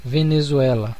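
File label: "venezuela", Brazilian Portuguese; gcompris; geography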